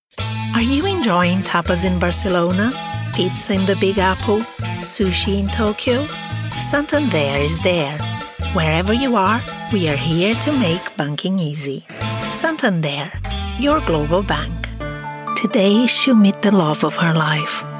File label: COMMERCIALS ENGLISH